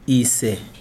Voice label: "we", Chikunda